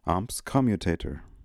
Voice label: "ams commutator", pronounciations; Fourth Way; Beelzebub